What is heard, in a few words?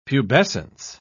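"pubescence", British English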